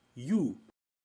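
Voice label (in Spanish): "llu", Español; Espagnol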